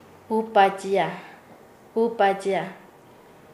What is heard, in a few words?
Nasa Yuwe; empujar; paez